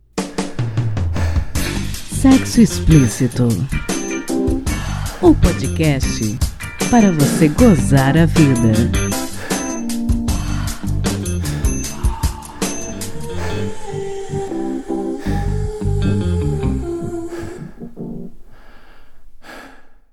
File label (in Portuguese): Vinheta voz e trilha